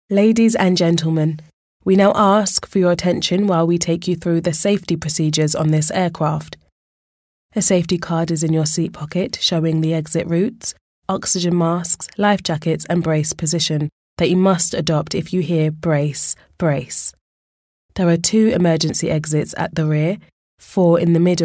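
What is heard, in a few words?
British English Corporate; GoLocalise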